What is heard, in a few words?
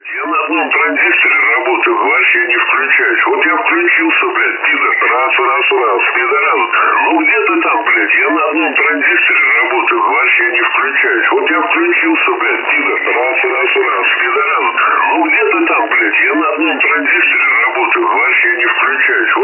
msg russo; 7055 kHz; Perseus; Schwarzsee